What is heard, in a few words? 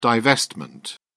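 "divestment", British English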